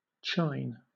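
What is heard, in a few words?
"chine", British English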